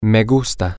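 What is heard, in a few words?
I like, Spanish, male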